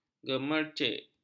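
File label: "gemercik", Malay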